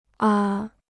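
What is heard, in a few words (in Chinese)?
"啊", Chinese; 아; 야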